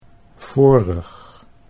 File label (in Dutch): "vorig", Dutch